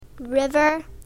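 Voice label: "river", English word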